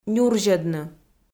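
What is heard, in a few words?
"нюрзьӧдны", Komi, female; kpv; audio dict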